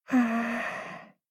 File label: angry